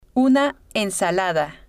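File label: una Ensalada, Spanish, female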